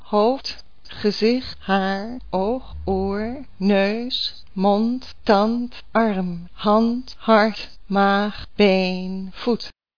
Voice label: dutch